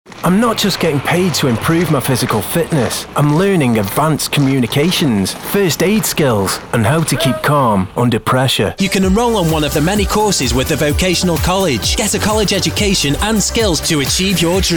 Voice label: Commercial